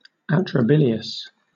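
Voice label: "atrabilious" English, British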